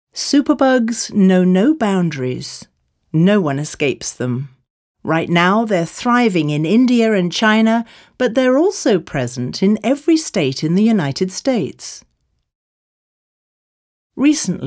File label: Voice Documentary Excerpt; Superbugs